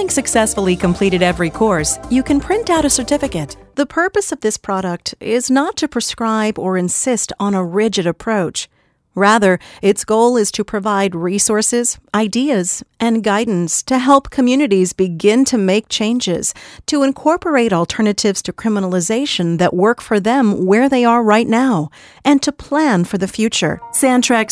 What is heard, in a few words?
English E Learning